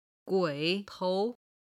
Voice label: "gui3 tou2", Chinese